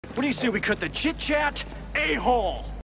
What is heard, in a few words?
chit chat